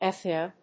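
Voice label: "ethno-", English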